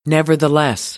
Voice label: "nevertheless", American English